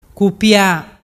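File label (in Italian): "cupia", Vernazzese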